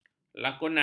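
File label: "lakonan", Malay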